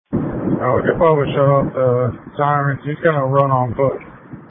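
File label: Police Patrol Dispatch; New Bern Police Patrol 1 (Dispatch) (TG 200)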